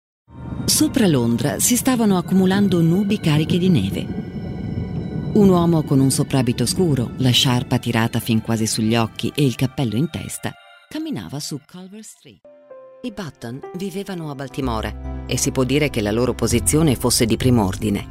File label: Audio Books